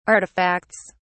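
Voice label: "artifacts", English, British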